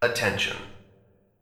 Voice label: attention, PA